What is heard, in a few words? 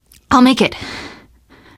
getting revived, teen girl; source engine; left 4 dead 2; survivor